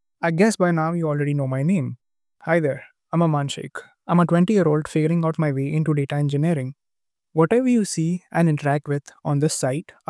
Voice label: voicenote